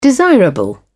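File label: "desirable", British English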